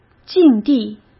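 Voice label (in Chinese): "境地", Mandarin Chinese; chinese-flashcards; hsk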